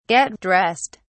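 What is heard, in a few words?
"get dressed", British English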